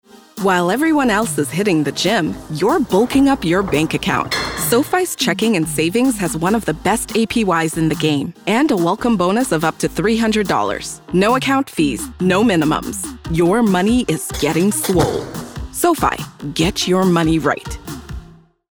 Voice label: Commercial; SoFi